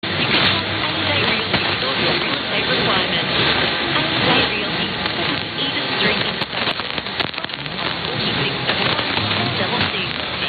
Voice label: advert; 531 4KZ Innisfail Australia A and A Realty